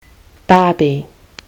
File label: "barbie", kiwi english